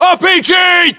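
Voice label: threat rpg, battlechatter, British; english; uk; localized english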